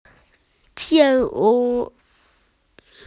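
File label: "zi3shu3", female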